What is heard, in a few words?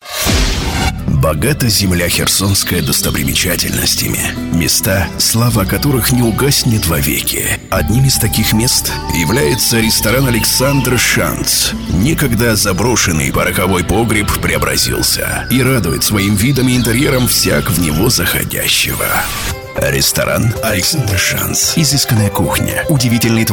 Russian Voice Over